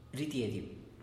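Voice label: "ridiediu", Teotitlán del Valle Zapotec; sales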